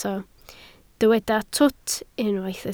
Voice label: "twt", Welsh

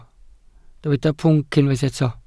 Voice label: "pwnc", Welsh

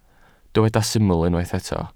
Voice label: "syml" Welsh

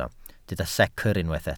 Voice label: "secr" Welsh

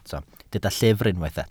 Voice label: "llyfr" Welsh

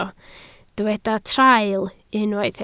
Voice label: "traul", Welsh

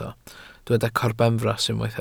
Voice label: "corbenfras" Welsh

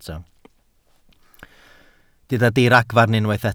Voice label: "diragfarn" Welsh

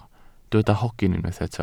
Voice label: "hogyn" Welsh

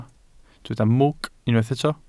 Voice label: "mwg", Welsh

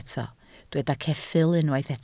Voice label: "ceffyl" Welsh

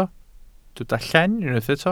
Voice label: "llen", Welsh

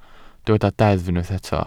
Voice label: "deddf" Welsh

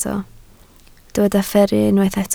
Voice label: "fferru", Welsh

